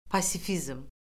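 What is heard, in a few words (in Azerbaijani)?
"pasifizm", Azerbaijani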